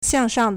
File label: "xiang4shang4", Chinese